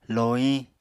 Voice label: "lohiñ", Apatani

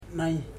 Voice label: "sun", Ipili

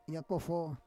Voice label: "yakofo", Roon

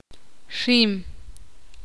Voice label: ixil; ara a

